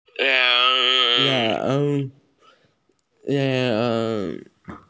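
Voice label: "breakfast", Mandarin; zao3can1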